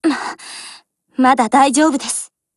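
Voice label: BREAK, Japanese; GF Alarm; FAMAS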